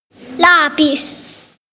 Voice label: "bleistift", Italian; classroom